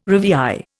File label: "rivière", Indian